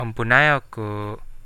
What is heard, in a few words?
sorry, Central Dusun